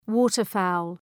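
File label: "waterfowl", English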